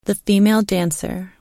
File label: female dancer man